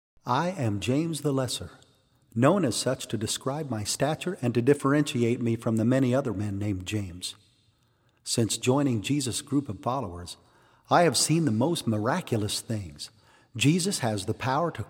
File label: Monologue; James the Lesser; living last supper